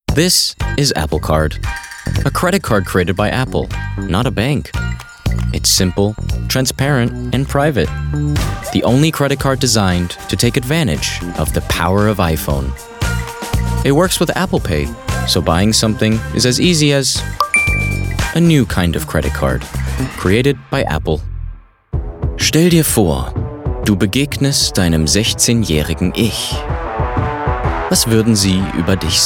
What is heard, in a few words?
VO ENG GER